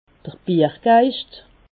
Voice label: "biergeischt", alsace

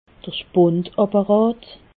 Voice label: "spundapparat", alsace

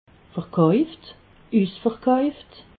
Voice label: "verkauft", alsace

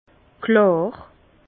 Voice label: "klar", Bas Rhin; vin; clair

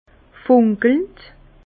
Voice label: "funkelnd", Bas Rhin; vin; étincellant

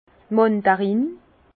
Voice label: "mandarin", Bas Rhin; vin; mandarine

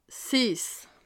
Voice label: "siess", alsace

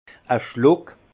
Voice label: "schluck", alsace; vin; gorgée

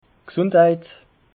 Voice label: "gsundheit", alsace

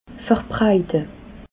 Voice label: "verbreite", alsace

